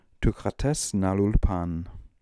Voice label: "Tookha Tes Nalool Pan", pronounciations; Fourth Way; Beelzebub